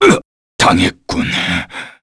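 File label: voice Dead, Korean; heroes; Nicx; kings raid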